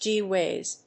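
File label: "gee whiz", English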